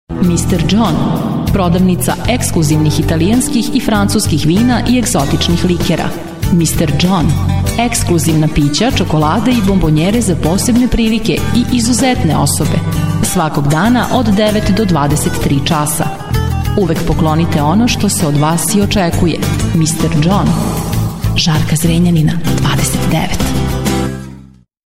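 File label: serbian female commercial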